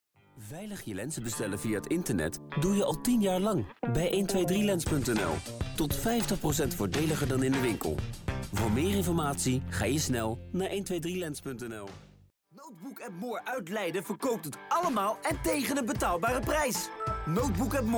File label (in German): Niederländisch, Männlich